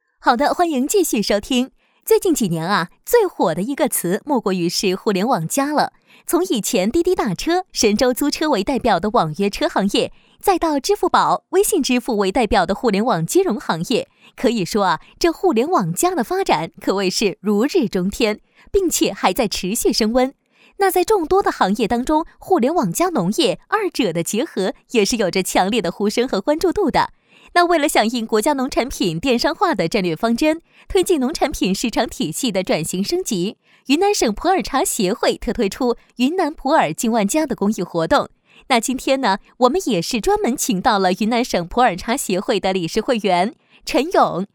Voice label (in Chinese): 女 电台主持节目 轻松自如